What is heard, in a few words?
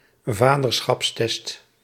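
"vaderschapstest", Dutch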